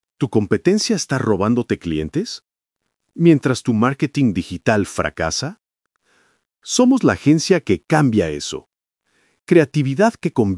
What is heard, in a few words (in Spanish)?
Locucion Ai Voz; Yolocutor Studio